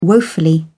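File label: "woefully", English, British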